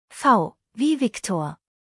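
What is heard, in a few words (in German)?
"Viktor", German spelling alphabet; V wie Viktor; buchstabierung alt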